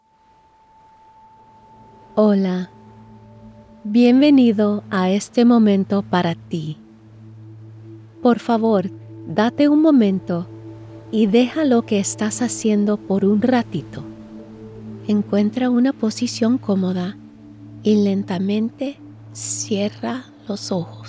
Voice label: Meditation Spanish